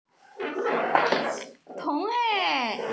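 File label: "le4", Mandarin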